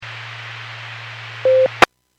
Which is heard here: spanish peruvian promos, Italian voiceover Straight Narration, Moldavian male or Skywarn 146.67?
Skywarn 146.67